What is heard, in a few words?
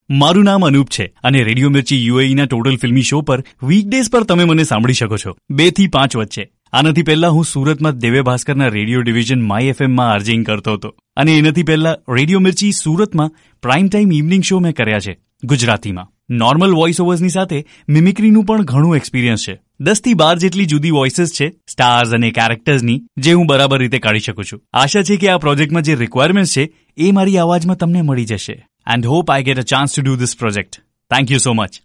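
Gujarati male Narration; voice over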